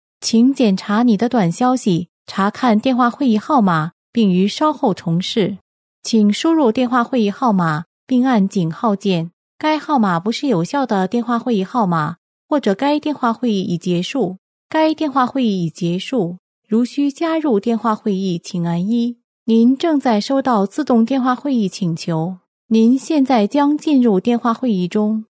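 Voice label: Chinese, female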